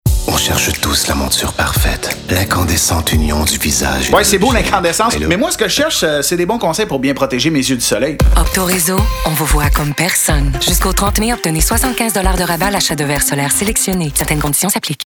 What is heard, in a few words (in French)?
Publicite radio; OptoReseau incandescence